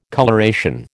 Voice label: "coloration", American